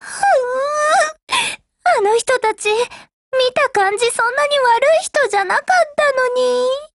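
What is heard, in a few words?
BREAK, Japanese, Voice; Lewis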